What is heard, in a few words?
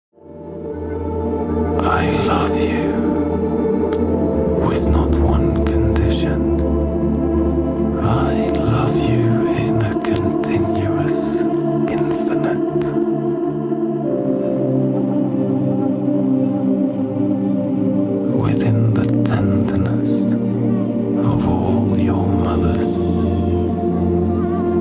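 Norwegian English M VOG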